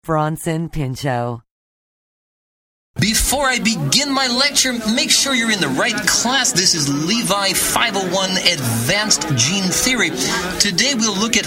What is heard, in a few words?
commercial; Innovative LA